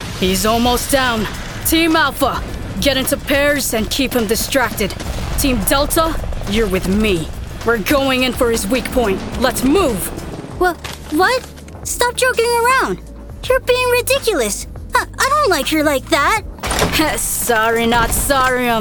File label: Character